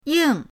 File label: "ying4", pinyin; pinyindct-open